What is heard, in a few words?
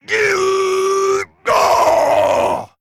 Male Trogg Boss Death; Morloch